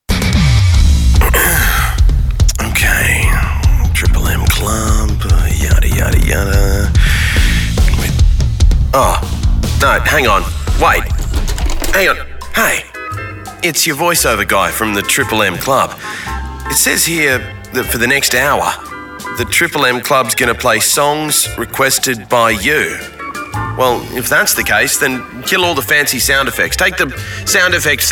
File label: Imaging; Triple M